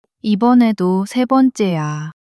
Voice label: sadness